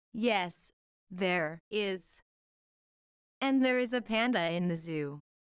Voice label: low